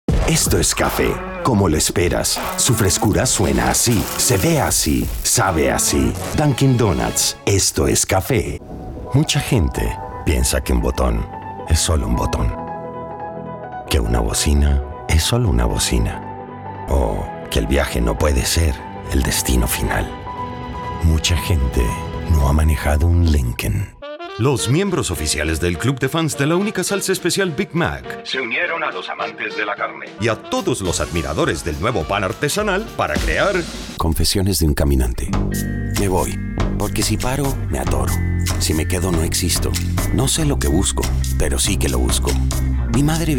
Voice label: Spanish VO Commercials